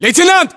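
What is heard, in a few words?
rank lieutenant, battlechatter, Russian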